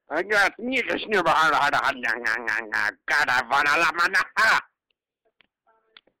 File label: Gibberish